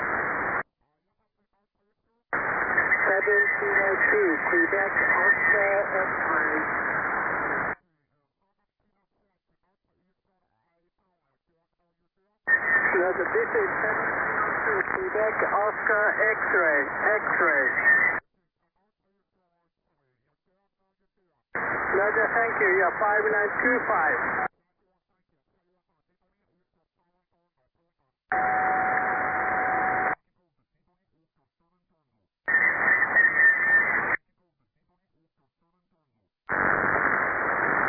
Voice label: CQWW SSB USB 28MHz